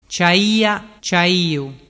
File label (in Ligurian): "ciaia ciaiu", dialettu